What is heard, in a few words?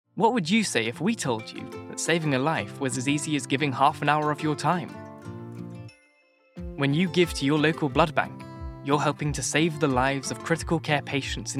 Commercial UK